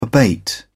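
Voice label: "abate", British English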